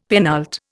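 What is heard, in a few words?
"penult", Indian English; Dictionary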